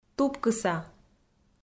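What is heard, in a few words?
"тупкыса", Komi, female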